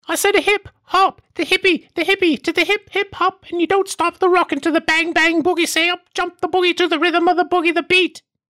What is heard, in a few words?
British Housewife